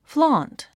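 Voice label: "flaunt", 英単語 発音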